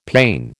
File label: "pane", American